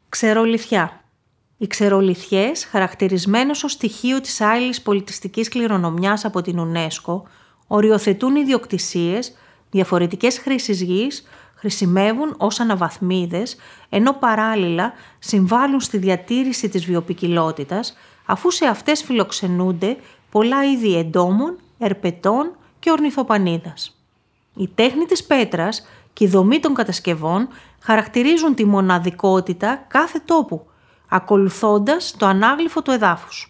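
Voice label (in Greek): Ξερολιθιά, Ηχητική ξενάγηση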